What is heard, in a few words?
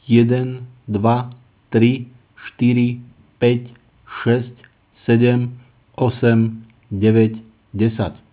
say 1-10 8khz